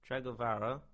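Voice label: "Tregavarah", English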